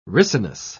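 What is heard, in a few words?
"ricinus", British English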